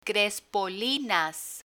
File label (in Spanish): "crespolinas", Spanish